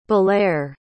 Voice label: "balere", pronounce